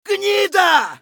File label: scav battlecry; eft